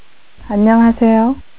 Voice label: korean; Golden Record